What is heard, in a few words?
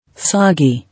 "Soggy", English